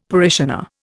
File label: "parishioner", Indian; Dictionary